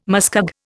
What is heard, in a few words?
"muskeg", Indian